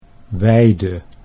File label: "weide", Dutch